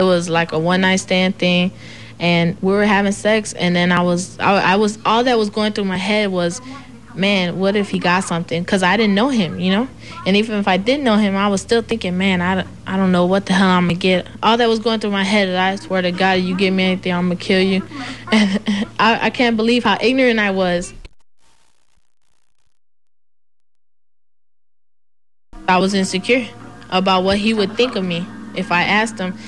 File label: AAE Chicago female teen, African American